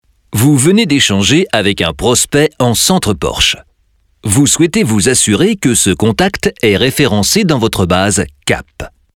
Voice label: E-LEARNING; PORSCHE